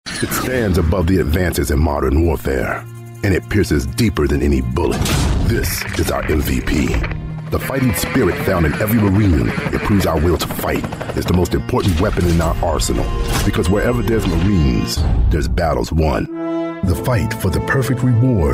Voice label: Commercial